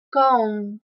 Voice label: "kaun" Hindi